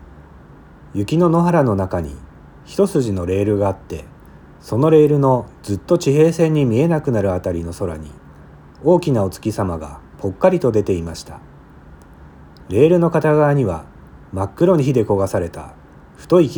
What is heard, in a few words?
iPhone Dialog